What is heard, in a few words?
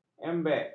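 "embek", Malay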